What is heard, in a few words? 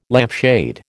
"lampshade", American